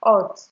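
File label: "hot", Nigerian Pidgin, female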